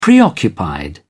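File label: "preoccupied", British English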